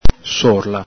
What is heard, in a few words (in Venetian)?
"sorla", Veneto